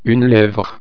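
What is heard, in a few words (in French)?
"levre", French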